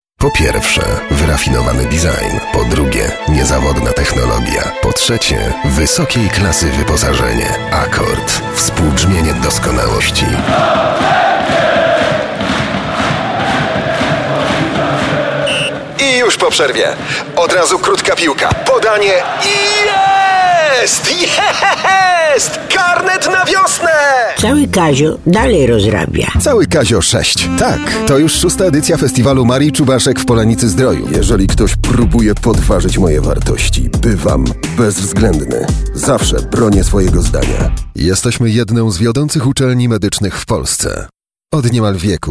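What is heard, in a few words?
voice male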